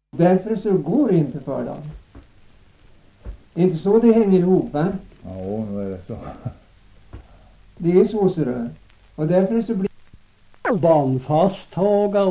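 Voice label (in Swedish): Högsjö Ångermanland, dialekter; Folkminnen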